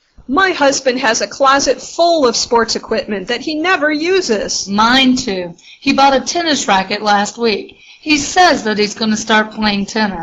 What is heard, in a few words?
Dialog